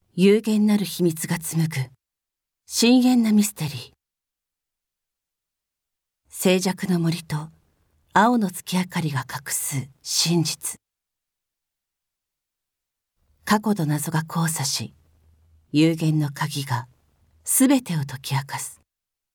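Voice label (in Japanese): ナレ, ボイスサンプル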